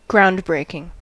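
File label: "groundbreaking", US English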